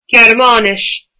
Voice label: "German", Irish